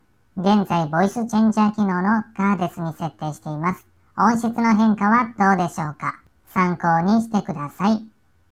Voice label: maono wireless microphone